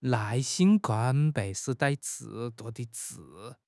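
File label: "nai4 xin1", Mandarin Chinese; HSK; HSK4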